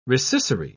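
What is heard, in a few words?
"rescissory", British English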